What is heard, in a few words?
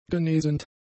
"genesend", German; adjectives